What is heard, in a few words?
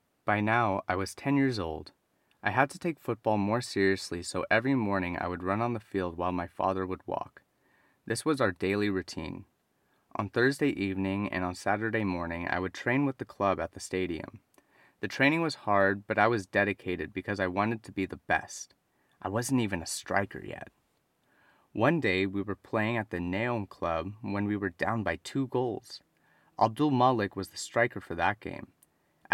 Reading